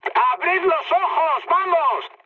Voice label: player friendly fire, mood high, speech